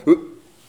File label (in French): "hein" surprise